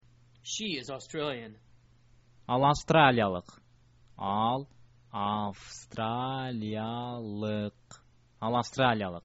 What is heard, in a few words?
she is australian, kyrgyz